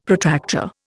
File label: "Protractor", Indian; Dictionary